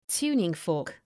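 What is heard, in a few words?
"tuning fork", English; {‘tu:nıŋ,fɔ:rk}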